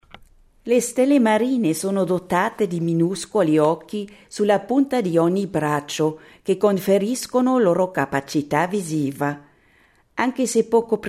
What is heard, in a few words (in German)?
Italienischer Kurzkommentar